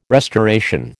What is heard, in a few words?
"restoration", American